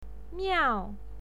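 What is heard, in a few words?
"miao" fourth tone, pinyin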